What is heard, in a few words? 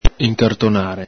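"incartonare", Venetian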